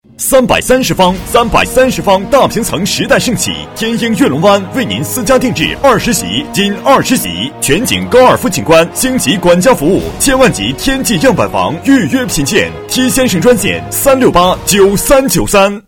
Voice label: 地产 男 大气激情; 英月珑湾地产

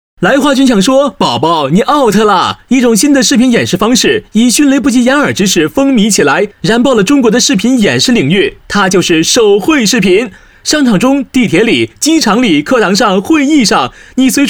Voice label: 男-轻快幽默; 飞碟说; MG来画君

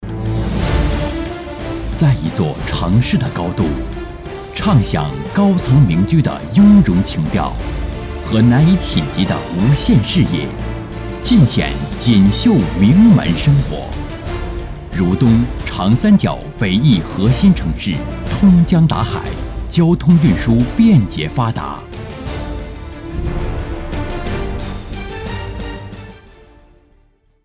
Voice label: 房地产 男 大气沉稳

